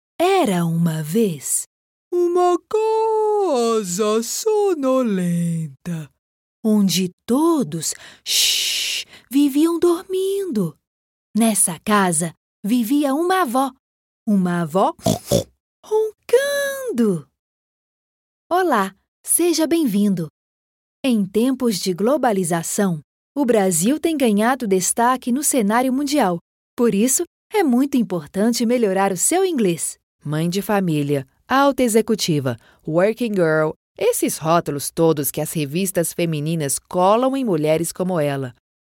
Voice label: brazilian voice audiobook